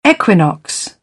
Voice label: "equinox", British; AmericanBritish

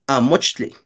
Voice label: "amochtli", Náhuatl; libro(s); book(s)

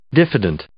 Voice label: "diffident", English

